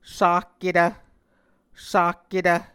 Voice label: "sakketv", Muscogee; Onvkv Ohhvyayices; A Dictionary of Creek/Muskogee; SIL International